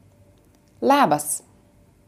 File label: lithuanian hello